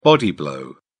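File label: "body blow", British English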